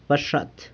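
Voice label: "baxat", toad, San Lucas Quiaviní Zapotec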